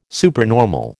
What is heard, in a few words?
"supernormal", American